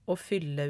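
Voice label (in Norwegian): "å fylle", Norwegian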